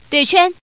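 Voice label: Woman, pair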